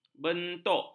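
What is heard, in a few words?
"bentuk", Malay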